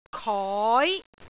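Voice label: "koi2", Cantonese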